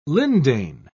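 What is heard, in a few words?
"lindane", British English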